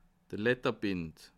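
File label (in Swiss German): "ledderbind", Bas Rhin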